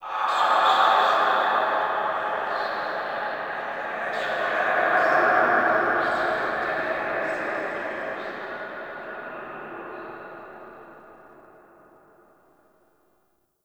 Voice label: Prayer Whisper; Lab; Warframe; Tau; Protoframe Room; Ambience

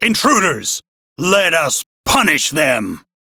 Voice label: Crew Enemy Spot, Male, en; Warframe; Railjack Crew; Arbiters Hexis

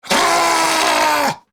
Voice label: Attack Grineer Ghoul Sargeant, en

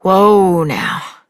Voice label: Horse Stop Walk Adult; Duviri

